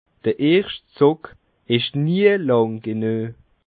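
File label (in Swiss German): "zug", Bas Rhin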